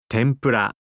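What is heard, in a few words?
"tenpura", Japanese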